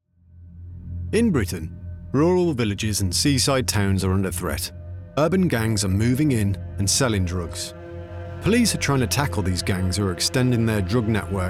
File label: Documentary